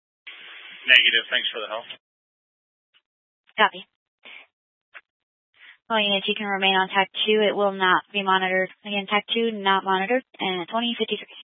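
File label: Incident Tac 2, Scanner audio recording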